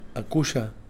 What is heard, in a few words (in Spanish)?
aguja, Kakchikel